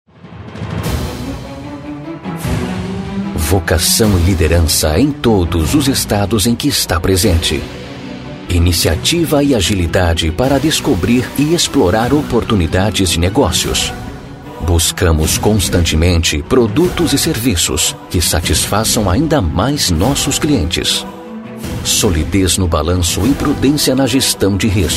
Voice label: Narration Bank